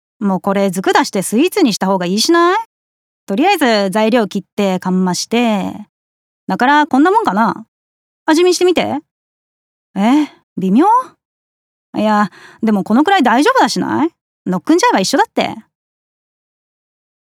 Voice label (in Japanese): 方言